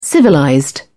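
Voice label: "civilized", British English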